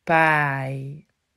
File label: "Paai", Dutch